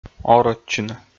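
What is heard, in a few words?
"орӧдчыны", Komi, male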